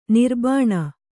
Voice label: "ನಿರ್ಬಾಣ", Kannada

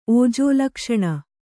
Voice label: "ಓಜೋಲಕ್ಷಣ", kannada

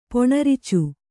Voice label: "ಪೊಣರ್ಚು", Kannada

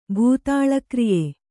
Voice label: "ಭೂತಾಳಕ್ರಿಯೆ", Kannada

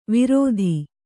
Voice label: "ವಿರೋಧಿ", Kannada

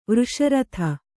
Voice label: "ವೃಷರಥ", Kannada

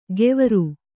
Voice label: "ಗೆವರು", Kannada

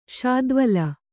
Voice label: "ಶಾದ್ವಲ", Kannada

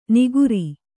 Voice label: "ನಿಗುರಿ", Kannada

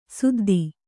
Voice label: "ಸುದ್ದಿ", Kannada

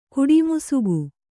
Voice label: "ಕಡುಮಿಸುಗು", kannada

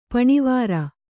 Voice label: "ಪನಿವಾರ", Kannada

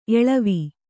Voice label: "ಎಲುವು", Kannada

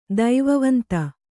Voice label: "ದೈವವಂತ", Kannada

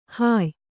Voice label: "ಹೊಯ್", Kannada

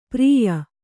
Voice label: "ಪಾರಿಯ", Kannada

